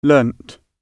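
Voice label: "learnt", British English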